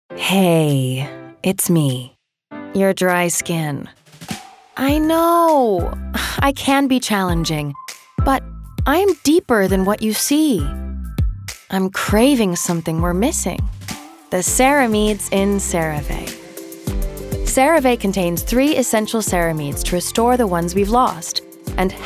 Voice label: COMMERCIAL